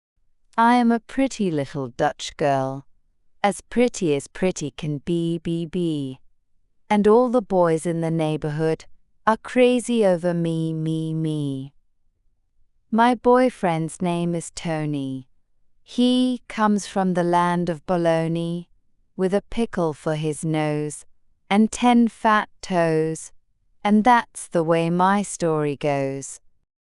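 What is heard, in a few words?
female Dutch, classic rhymes; I am a Pretty Little Dutch Girl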